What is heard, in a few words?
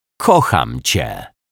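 "kocham cie", Polish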